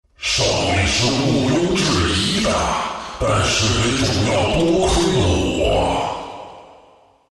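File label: win, chinese; dota2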